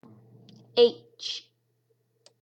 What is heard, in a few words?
H sound